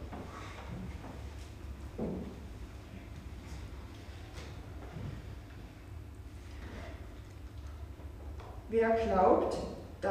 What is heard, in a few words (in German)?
Lesung NT; Gottesdienste zum Nachhören; Jubilate